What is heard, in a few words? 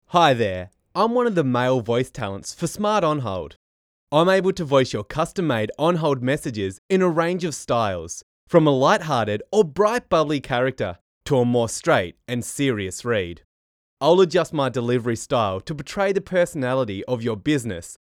male; Voice Over artists; Smart On Hold Messages